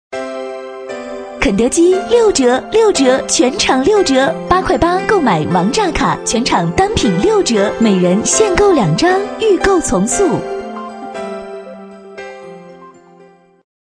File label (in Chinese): 女4号促销; 肯德基